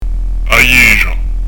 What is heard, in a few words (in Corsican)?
"a ghjesgia", pronuncia; dizco grammatica